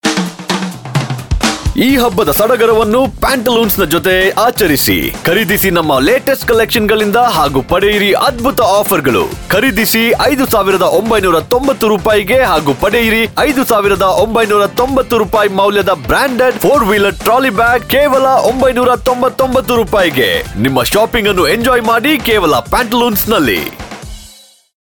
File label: Commercial